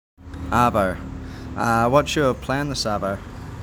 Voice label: "Arvo", New Zealand; common New Zealand slang words and phrases